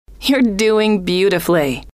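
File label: you're doing beautifully, female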